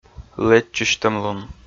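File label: "лэдзчысьтӧмлун" Komi, male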